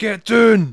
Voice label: "take cover", Jock; Worms Armageddon